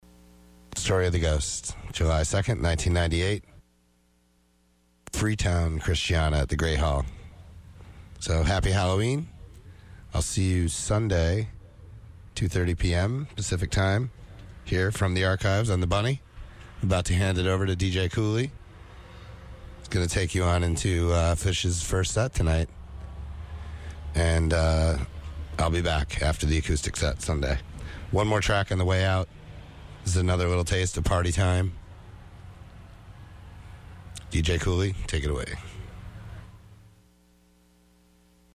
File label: radio banter; Festival 8